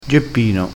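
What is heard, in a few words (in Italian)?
"geppino", vocabolario dialettale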